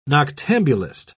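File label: "noctambulist", British English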